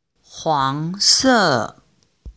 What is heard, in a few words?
chinese pronunciation an ang